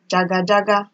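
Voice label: "jaga jaga", Nigerian Pidgin, female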